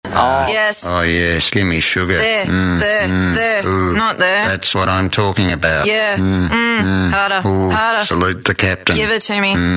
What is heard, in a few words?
Deadpan Character